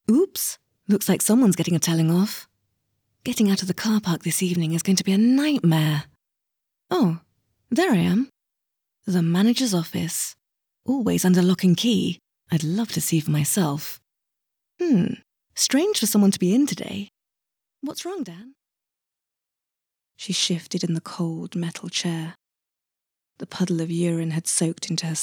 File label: Podcast Audiobook Voice